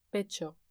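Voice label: "pecho" Spanish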